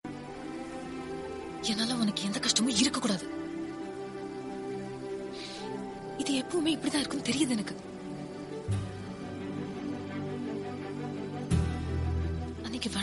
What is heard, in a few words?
hindi love dialogues ringtones